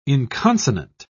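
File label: "inconsonant", British English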